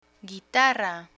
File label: "guitarra", Spanish; guitarra (f) - guitar